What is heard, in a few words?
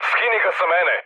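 Serbian aircraft damaged mood med, speech; War Thunder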